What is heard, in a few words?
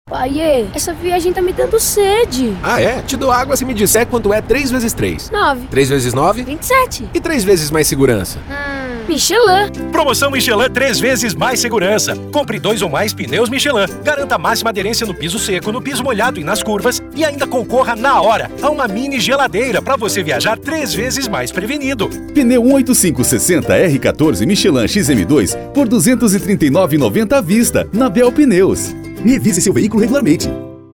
Spot; Michelin; Francisco Beltrão